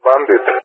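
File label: "bandit", English, GREECE; Allied Flight; DCS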